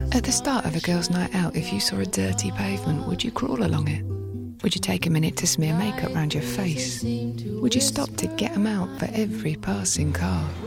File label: Commercial